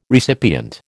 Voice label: "recipient", American

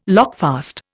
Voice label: "lockfast", Indian

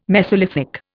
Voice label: "mesolithic", Indian

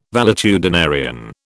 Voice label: "valetudinarian", British

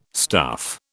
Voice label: "stuff", British

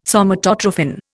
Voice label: "Somatotrophin", Indian

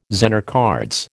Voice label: "Zener cards", American

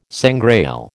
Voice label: "sangrail", American

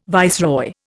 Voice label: "viceroy", Indian

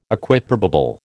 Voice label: "Equiprobable", American

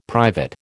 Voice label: "private", American

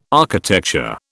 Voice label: "architecture", British

